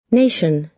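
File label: "nation", American English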